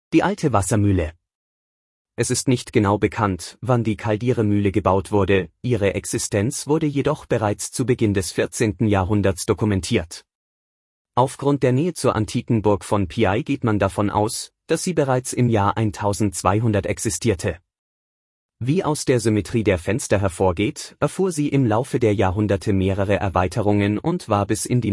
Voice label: Audioguida DEU Antico mulino

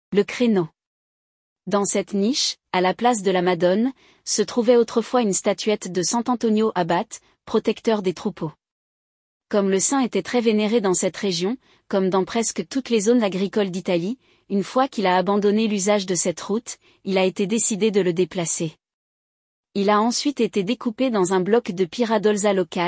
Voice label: Audioguida FRA Nicchia

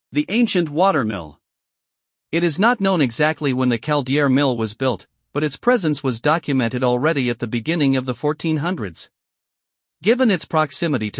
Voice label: Audioguida ENG Antico mulino

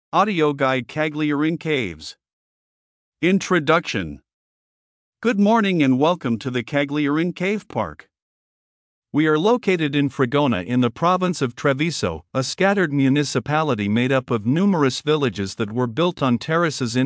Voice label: Audioguida ENG Introduzione

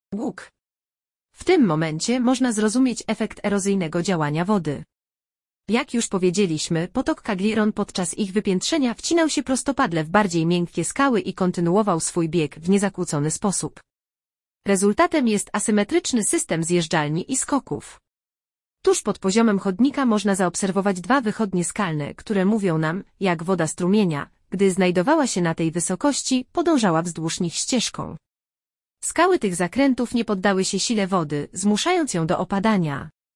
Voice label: Audioguida; POL; Arco

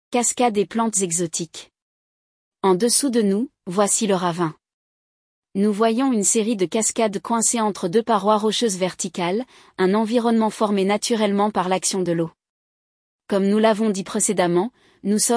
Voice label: Audioguida FRA Cascate

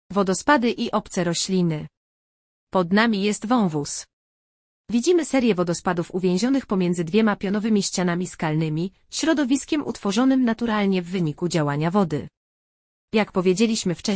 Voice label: Audioguida POL Cascate

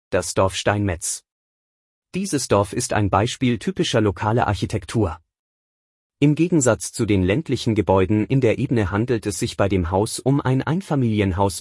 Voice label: Audioguida DEU Borgo

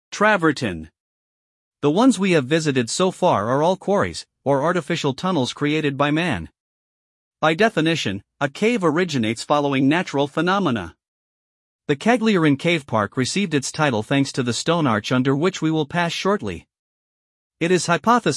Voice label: Audioguida ENG Travertino

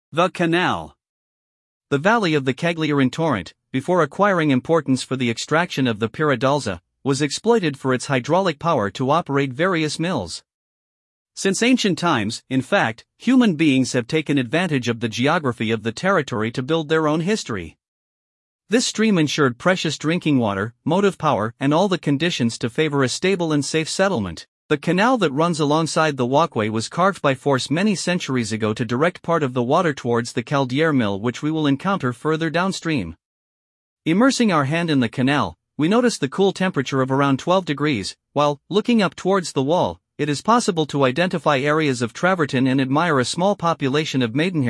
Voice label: Audioguida ENG Roggia

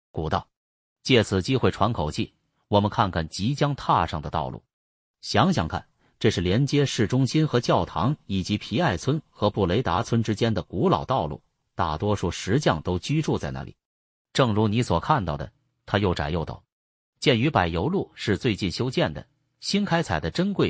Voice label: Audioguida CHI Strada

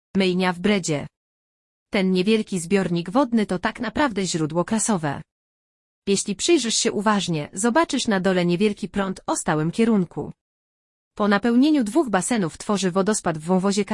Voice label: Audioguida POL Lavatoio

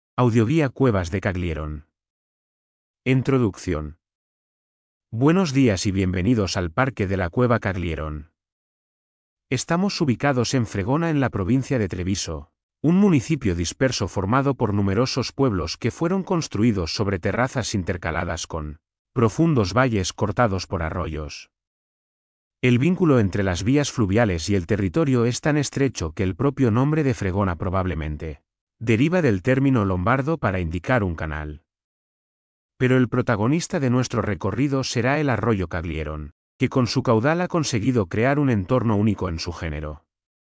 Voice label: Audioguida SPA Introduzione

